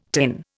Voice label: "tin", Indian

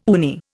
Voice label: "uni", Indian

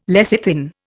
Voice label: "lecithin", Indian

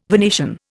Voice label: "Venetian", Indian

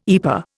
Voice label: "EPA", Indian

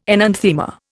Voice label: "enanthema", Indian

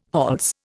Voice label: "hols", Indian

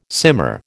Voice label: "simmer", American

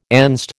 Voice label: "Enzed", American

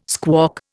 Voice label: "squawk", Indian

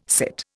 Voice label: "sit", Indian

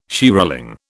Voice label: "shearling", British

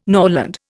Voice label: "norland", Indian

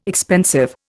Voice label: "expensive", Indian

